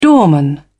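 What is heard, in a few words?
"doorman", British English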